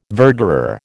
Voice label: "verderer", American